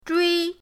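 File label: "zhui1", Mandarin Chinese, first tone; pinyindct-open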